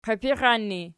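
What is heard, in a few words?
"qiipixan" ergative, Archi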